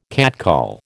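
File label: "catcall", American English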